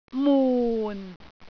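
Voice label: "mun4", Cantonese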